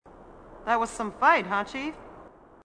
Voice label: female marine, sassy

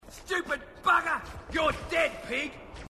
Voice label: marine, aussie; h2dialogue

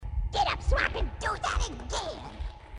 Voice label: get up, grunts

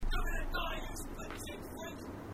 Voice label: marine, aussie; h2dialogue

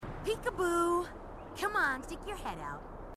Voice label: peek a boo, marine tough

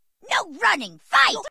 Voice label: no running, fight, grunts

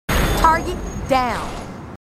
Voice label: marines female, marine tough

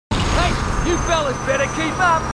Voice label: marines, aussie; h2dialogue

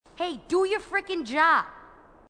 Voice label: female marine, marine tough

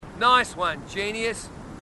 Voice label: marine, aussie; h2dialogue

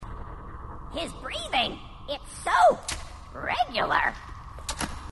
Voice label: grunt; h2dialogue; grunt crazy

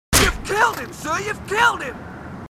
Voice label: marines, aussie; h2dialogue

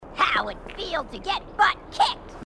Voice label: grunt; h2dialogue; grunt crazy

